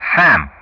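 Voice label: "SAM", English; Ka-50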